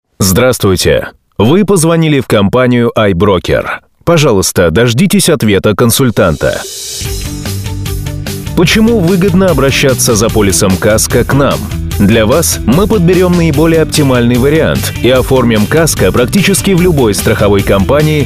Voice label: ivr